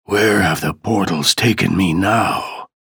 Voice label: chatter neutral; ranger